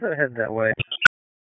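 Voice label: Police Spec Ops Tac, radio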